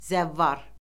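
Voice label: "zəvvar", Azerbaijani; Orfoqrafiya Lüğəti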